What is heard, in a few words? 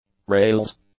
"rails", English; nouns